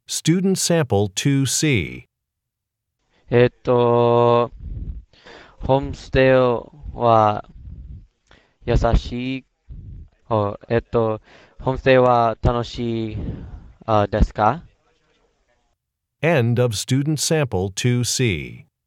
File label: japanese conversation